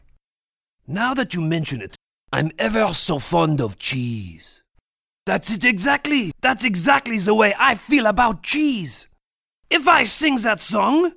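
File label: character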